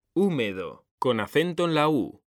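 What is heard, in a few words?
"húmedo", Spanish, male; mekanta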